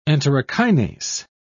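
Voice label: "enterokinase", British English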